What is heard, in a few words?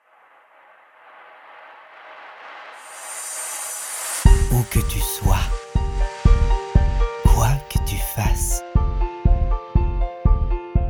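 French, male, narration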